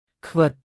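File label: "ខ្វិត", Khmer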